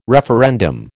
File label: "referendum", American